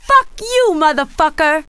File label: cuss, female, profanity; kingpin